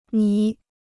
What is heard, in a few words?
"迷", Chinese